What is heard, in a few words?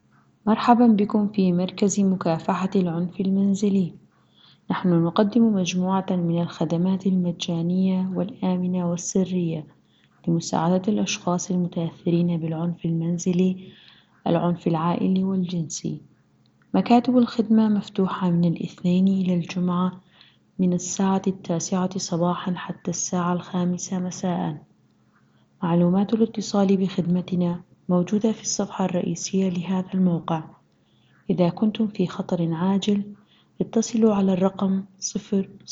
Arabic recording